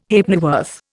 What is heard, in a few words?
"Halfpennyworth", Indian